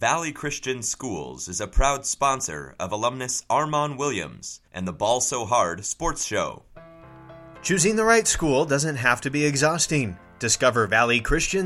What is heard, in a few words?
Radio Spot with Music